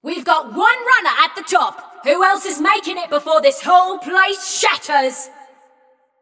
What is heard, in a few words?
Scrap Run Runner Reached End, Announcer; Flux